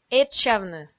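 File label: "этшсявны", Komi, female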